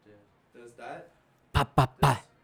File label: recordin Mic Input; flstudio; Vocals